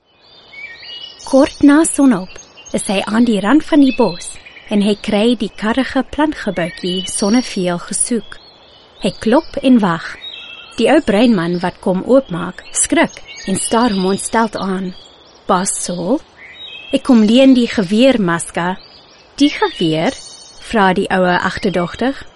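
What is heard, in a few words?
Afrikaans F Documentary, female; voice over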